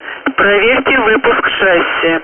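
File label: Speech, Russian, air traffic control; check landing gear; DCS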